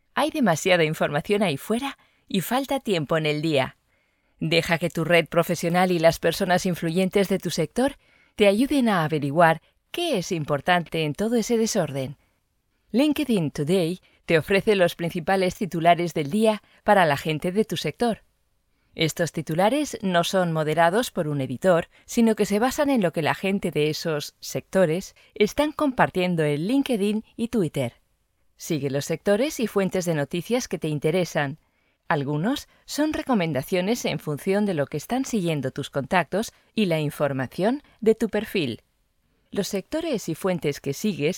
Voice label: es elearning; Linkedin